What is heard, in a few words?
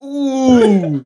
"ooh", Voice